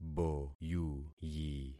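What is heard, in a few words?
"boyuyi", concatenated syllables; Affixing experiment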